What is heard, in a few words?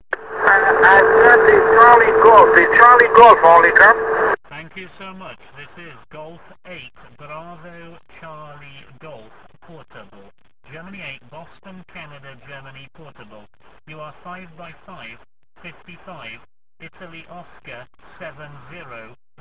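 amateur radio contact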